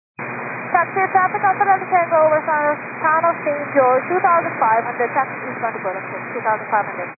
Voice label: 122 925MHz, scanner radio